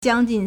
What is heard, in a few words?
"jiang1 jin4", Chinese